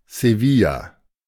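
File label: "Sevilla", German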